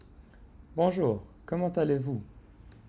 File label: french hello